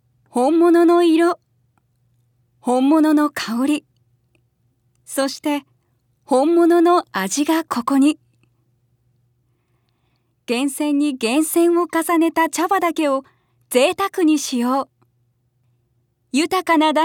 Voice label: 落ち着き ナレ

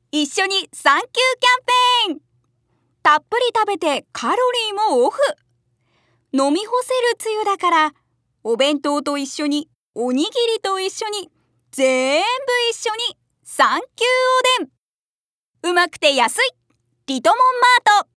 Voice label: さわやかナレ

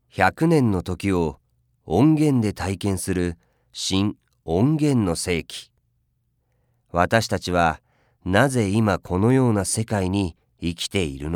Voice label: 渋め

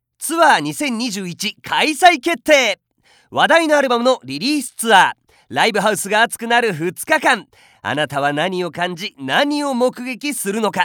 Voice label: 告知

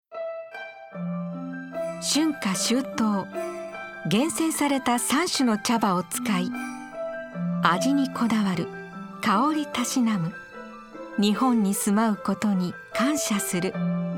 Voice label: 和風

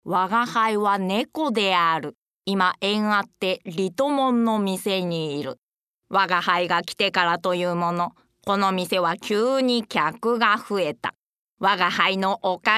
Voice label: キャラ告知

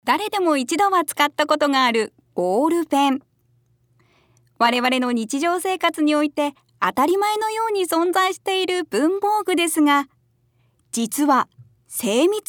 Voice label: 長尺 明るめ

